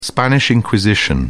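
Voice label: "spanish inquisition", English, British English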